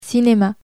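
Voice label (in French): "cinema", French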